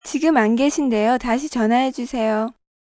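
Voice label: Not Here Korean, Telephone operator, Korean, female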